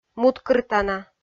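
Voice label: "муткыртана", Komi, female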